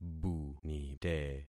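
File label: "bunite", concatenated syllables; Affixing experiment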